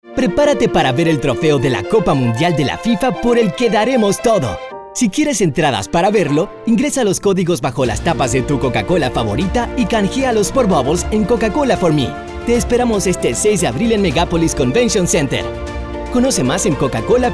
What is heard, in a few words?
spanish latin american movie trailers